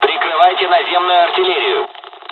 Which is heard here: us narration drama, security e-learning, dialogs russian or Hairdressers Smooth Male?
dialogs russian